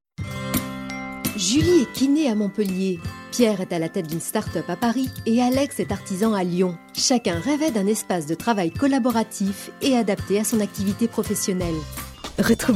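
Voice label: F, French France, female, narration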